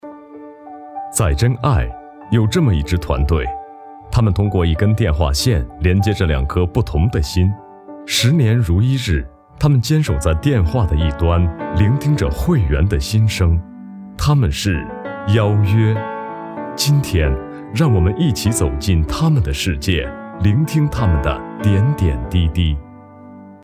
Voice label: 男, 旁白; 邀约

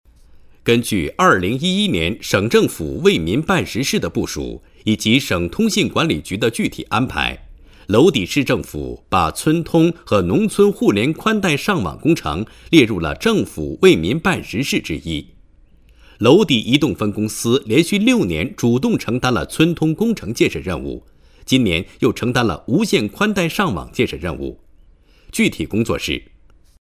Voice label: 男, 国语男声配音

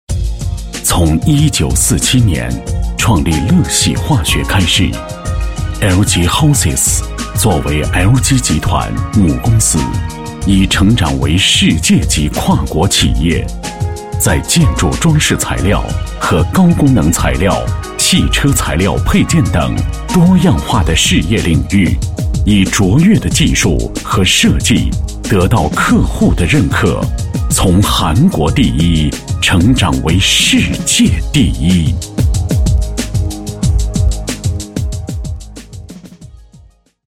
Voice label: 男25 企业, 国语男声配音; 央视大气专题; LG Hausys

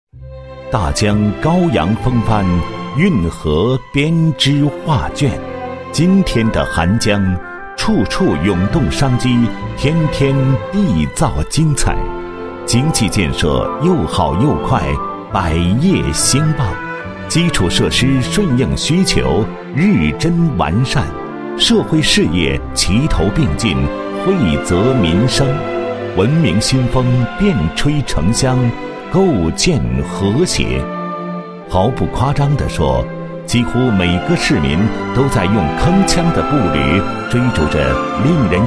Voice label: 男 专题 城市宣传, 国语男声配音 大气抒情; 寒江

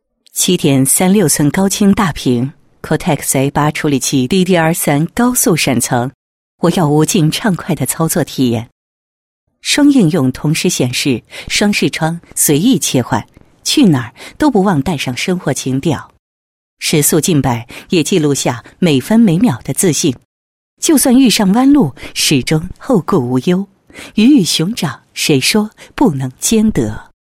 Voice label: 女 产品介绍 宣传介绍; 沃可视宣传介绍

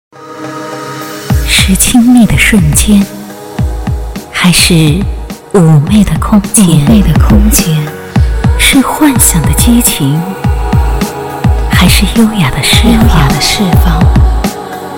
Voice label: 广告 衣柜中高端感性, 国语女声配音; 优雅; 伊百丽

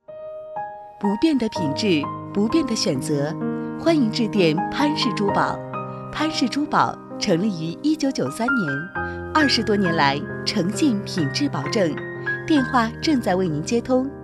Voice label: 女 彩铃 珠宝 温馨平和版; 潘氏珠宝

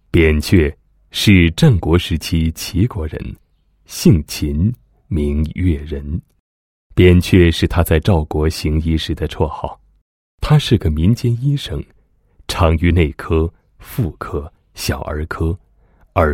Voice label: 男, 医疗专题; 扁鹊简介 非药物养生之刮痧

